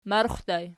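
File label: "marxxu" ergative plural, Archi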